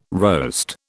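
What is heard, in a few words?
"roast", British English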